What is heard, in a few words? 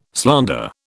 "slander", British